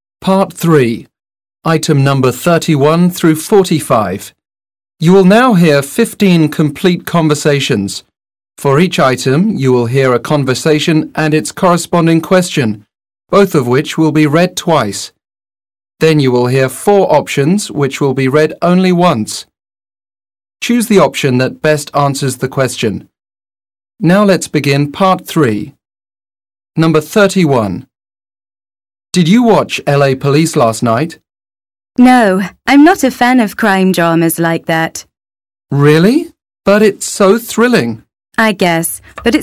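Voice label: British English, male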